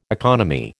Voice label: "economy", American

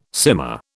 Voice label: "simmer", British

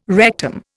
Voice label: "rectum", Indian

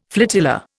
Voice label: "flotilla", Indian

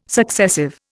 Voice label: "successive", Indian

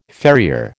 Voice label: "farrier", American

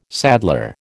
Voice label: "saddler", American

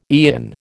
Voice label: "eon", American

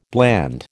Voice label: "bland", American

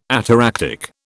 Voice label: "ataractic", British

